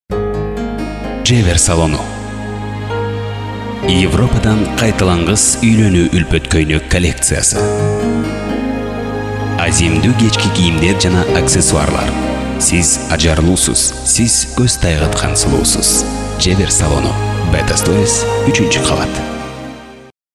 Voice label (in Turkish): Kirgizca Erkek